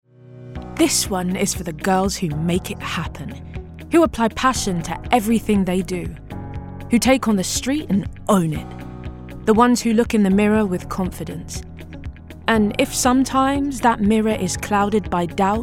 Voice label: COMMERCIAL VOICEREEL

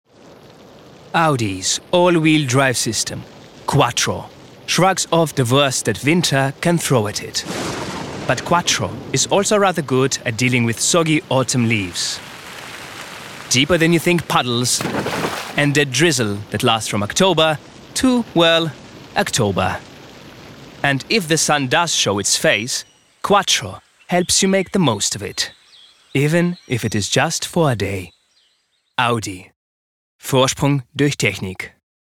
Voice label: Commercials; Audi

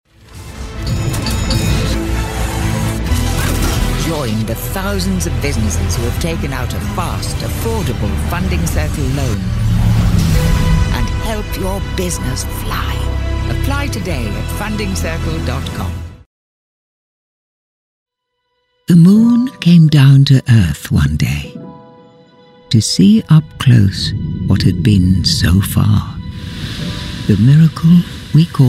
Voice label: COMMERCIAL REEL

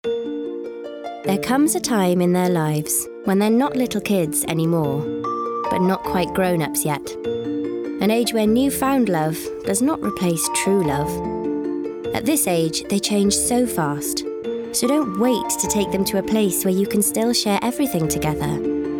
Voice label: Commercial Sample; Disneyland Paris

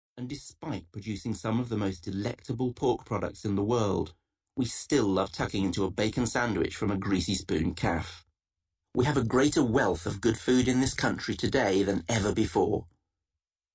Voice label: AUDIOBOOK; EATING FOR ENGLAND

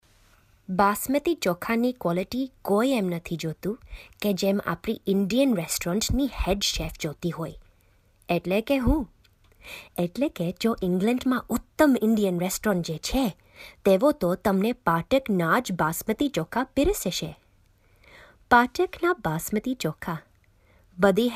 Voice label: Gujarati Basmati Commercial

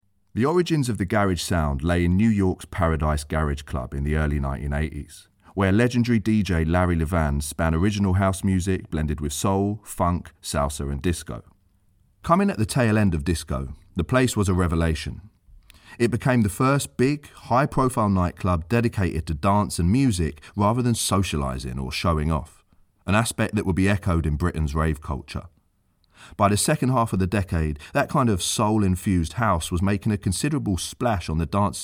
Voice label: Narrative; Sounds Like London

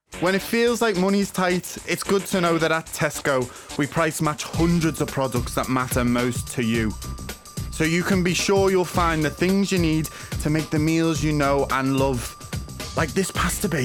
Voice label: Commercial; Tesco

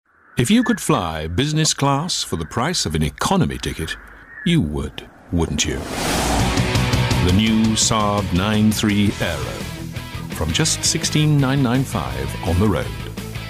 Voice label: Commercial

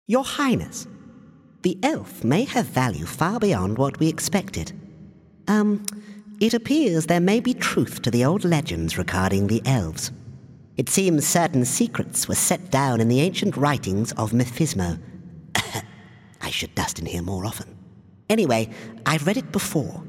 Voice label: character

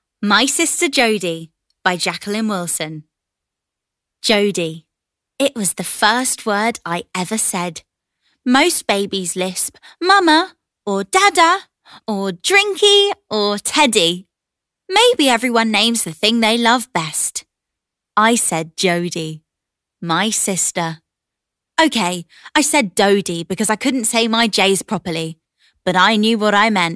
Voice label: Audiobook

